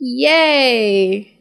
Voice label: "yay", drag; ooh ahh

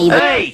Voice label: "hey", group of men

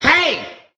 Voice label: "hey", Voice

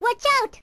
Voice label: "watch out", girl; Voice

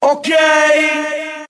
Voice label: "okay", expletives

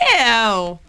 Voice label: "ew", voice

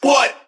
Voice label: what group of men

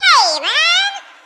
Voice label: "hey man", cartoon; Voice; introductions; hello hi hey

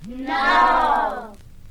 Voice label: "no", kids; Voice; expletives

